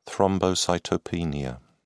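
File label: "thrombocytopenia", British English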